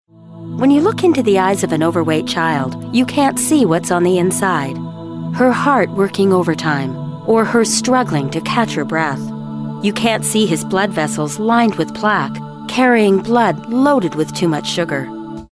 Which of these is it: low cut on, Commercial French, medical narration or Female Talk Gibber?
medical narration